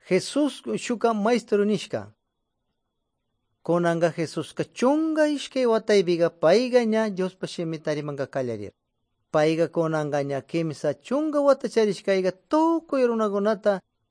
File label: good news quichua de pastaza